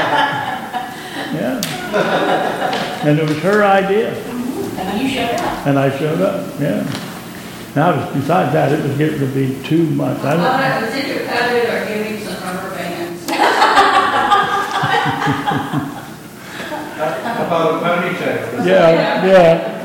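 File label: Other Announcements, church service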